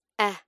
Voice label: "e" phonic; spoken English lanugage

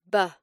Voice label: "b" phonic; spoken English lanugage

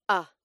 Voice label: "u" phonic; spoken English lanugage

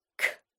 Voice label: "ck" phonic; spoken English lanugage

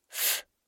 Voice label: "f" phonic; spoken English lanugage

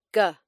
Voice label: "g" phonic; spoken English lanugage

